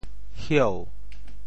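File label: "hieu2", Teochew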